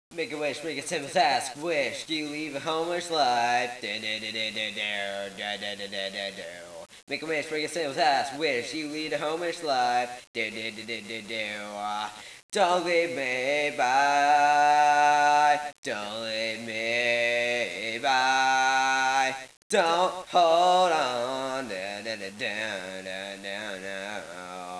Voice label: bleh echo